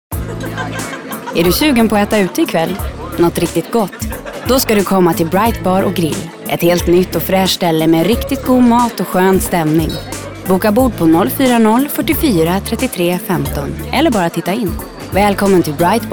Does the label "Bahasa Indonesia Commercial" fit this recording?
No